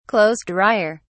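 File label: "clothes dryer", British English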